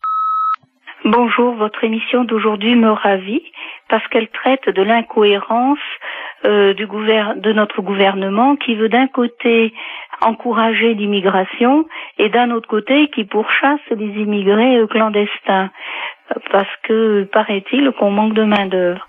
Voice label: Immigration clandestine repondeur

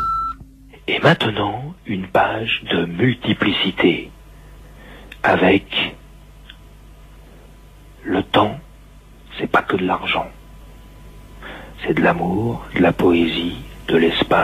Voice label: repondeur; Page de multiplicite

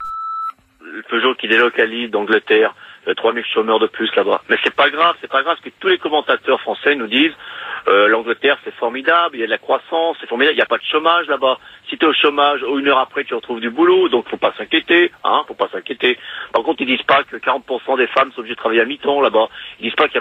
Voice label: repondeur; Liberalisme anglais

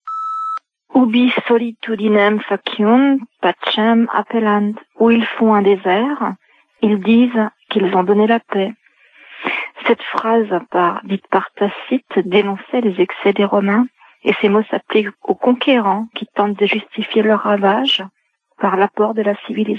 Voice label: Irak repondeur

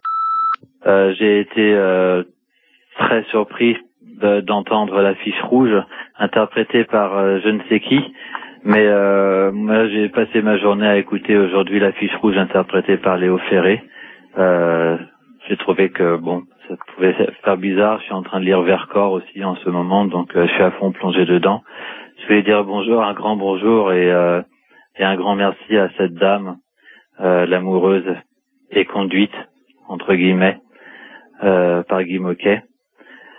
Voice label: Lettres fusilles repondeur

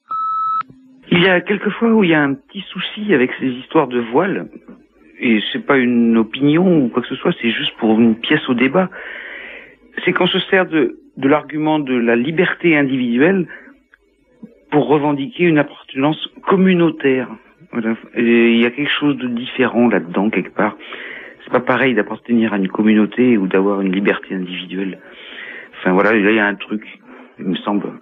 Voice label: répondeur

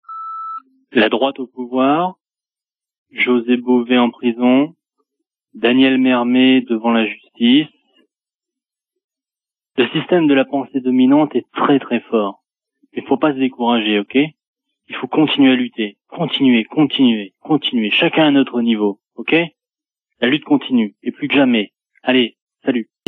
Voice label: La lutte continue repondeur

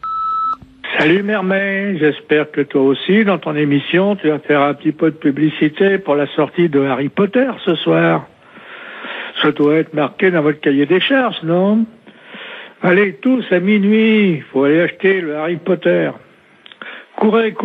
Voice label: repondeur; Sortie Harry Potter

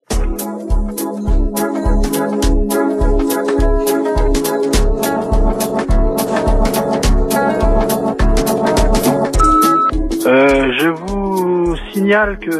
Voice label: Liberation Papon repondeur

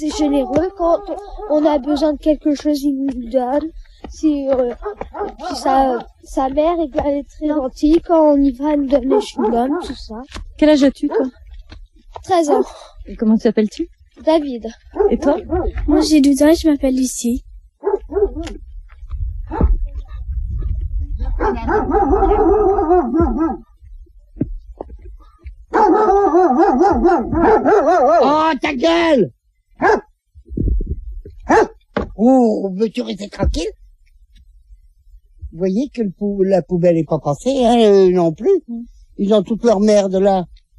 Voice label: Soucoupe en bois enfants

